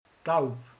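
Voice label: "galamb", Hungarian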